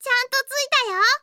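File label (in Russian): zvuki s golosom iaponskoi anime devushki, Japanese anime girl voice sounds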